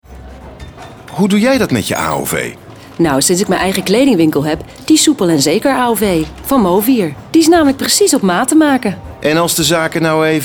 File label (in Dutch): geacteerd, vriendelijk, betrouwbaar, commercial; Movir